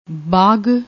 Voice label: "baagh", Punjabi